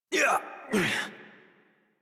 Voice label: Horse Mount Adult; Warframe; Duviri